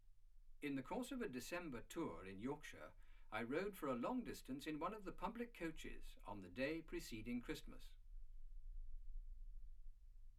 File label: male speech english